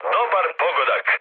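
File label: Serbian player killed enemy mood med, speech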